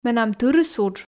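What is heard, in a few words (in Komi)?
менам тырыс удж, Komi, female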